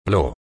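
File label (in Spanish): "plo", Spanish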